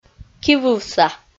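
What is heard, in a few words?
"кивывса", Komi, female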